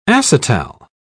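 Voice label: "acetal", British English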